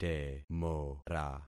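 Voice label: "temora", concatenated syllables; Affixing experiment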